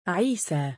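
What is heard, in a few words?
"3iisaa" male, Arabic; عِيسَى; イーサー; Isa、Iisaa、Isaa、Iisa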